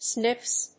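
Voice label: "Sniffs", English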